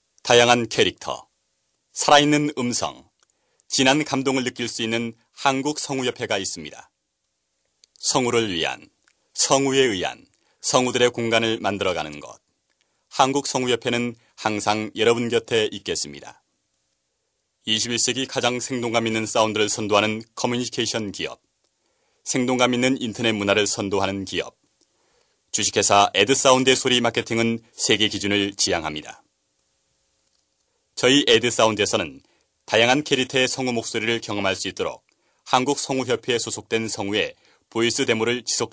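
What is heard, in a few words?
Korean male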